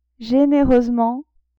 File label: "genereusement", French; adverbs